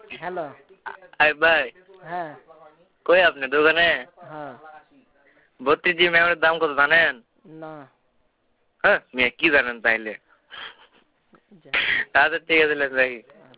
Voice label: Auto Call Record